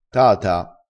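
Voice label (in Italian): "tata", vocabolario dialettale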